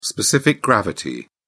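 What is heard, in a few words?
"specific gravity", British English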